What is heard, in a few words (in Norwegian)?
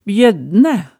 "bjøddnæ", hemsedals maalet tale